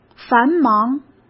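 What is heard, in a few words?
"繁忙", Mandarin Chinese; hsk; chinese-flashcards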